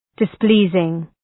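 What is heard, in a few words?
"displeasing", English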